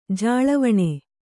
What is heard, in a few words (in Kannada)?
"ಜಾಳವಣೆ", kannada